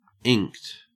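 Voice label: "inked", Australian English; pronunciations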